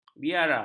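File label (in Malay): "biara", Malay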